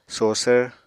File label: "sosvr", Apatani